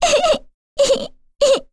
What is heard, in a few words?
Lilia Vox Sad, voices heroes en; kingsraid-audio